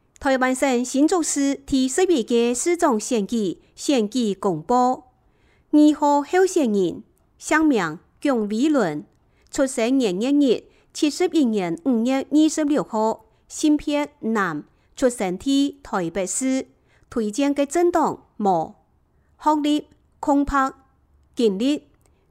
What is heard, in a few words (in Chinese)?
客語; 新竹市; 有聲公報; 市長